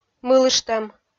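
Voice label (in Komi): "мылыштӧм", Komi, female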